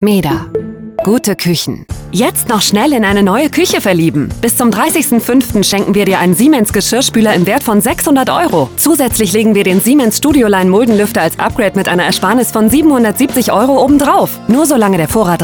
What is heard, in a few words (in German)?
Funkspot; Meda Kuechen